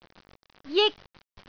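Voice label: "jik1", Cantonese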